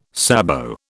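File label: "sabot", British English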